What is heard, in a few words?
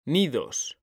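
"nidos", Spanish, male; mekanta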